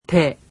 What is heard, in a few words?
"te", japanese; alphabet